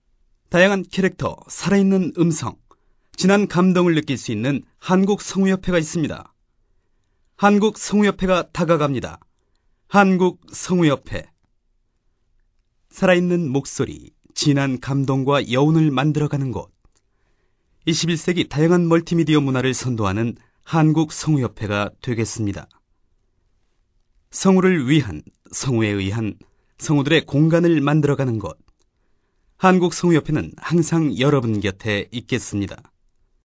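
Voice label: Korean male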